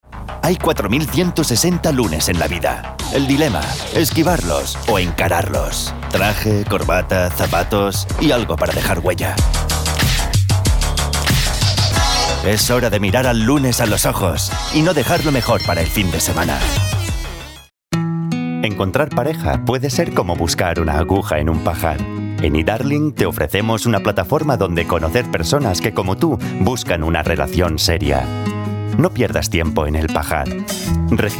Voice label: spanish Spot TV Commercial